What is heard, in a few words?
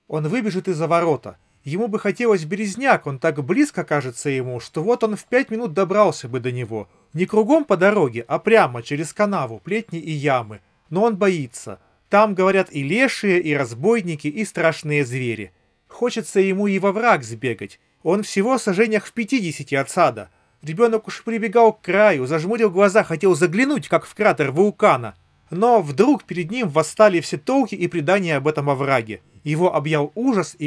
Recorder; redmi headphones neo